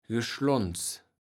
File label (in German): "Geschlonz", Platt